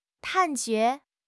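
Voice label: "判决", Mandarin Chinese; HSK6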